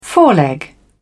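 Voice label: "foreleg", British English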